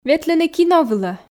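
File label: ветлыны кино вылӧ, Komi, female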